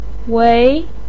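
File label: "wei2", Mandarin pinyin; 唯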